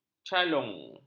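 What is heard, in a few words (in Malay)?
"calung", Malay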